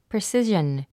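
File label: "precision", English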